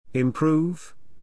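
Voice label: "improve", English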